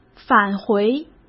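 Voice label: "返回", Mandarin Chinese; chinese flashcards; hsk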